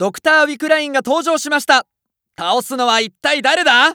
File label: Announce Wickeline Appear, JPN; Eternal Return